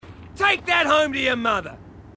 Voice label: marine, aussie; h2dialogue

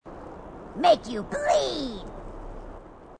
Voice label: grunt crazy; h2dialogue

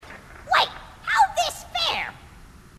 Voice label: grunt; h2dialogue; grunt crazy

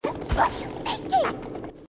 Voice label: what you thinking, grunts

